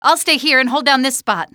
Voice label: hold down spot, female; Half-Life 2

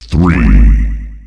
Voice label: "three", announcer male; Unreal Tournament; ut2004

